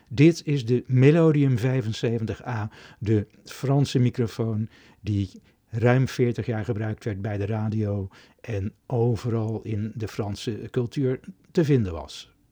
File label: Melodium 75A sound NL